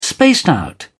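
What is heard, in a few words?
"spaced out", English, British English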